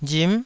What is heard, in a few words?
"rim9", taiwanese